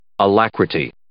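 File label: "alacrity", English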